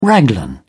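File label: "raglan", British English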